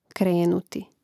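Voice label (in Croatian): "krenuti", Croatian; Mrežnik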